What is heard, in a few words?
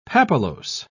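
"papillose", British English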